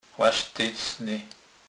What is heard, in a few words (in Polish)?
"lastycny", kurpiow